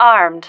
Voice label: "armed", female